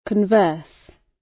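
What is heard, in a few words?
"converse", British; Language, linguistics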